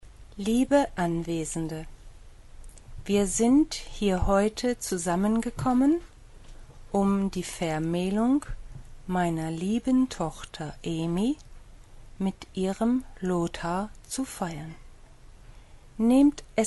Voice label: German speech